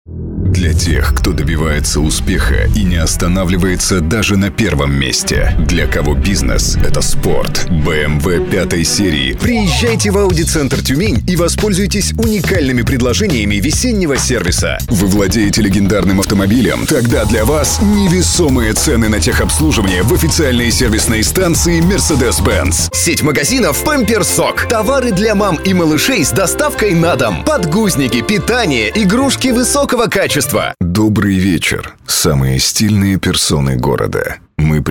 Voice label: Rus